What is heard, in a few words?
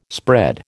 "spread", American